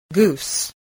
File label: "goose", voice